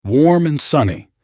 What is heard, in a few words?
male Warm and sunny